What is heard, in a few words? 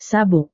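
"sabuk", Indonesian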